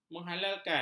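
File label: "menghalalkan", Malay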